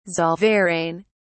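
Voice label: "zollverein", British English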